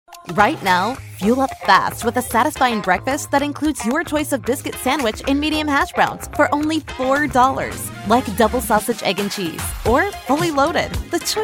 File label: Upbeat and youthful; commercial